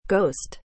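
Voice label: "ghost", English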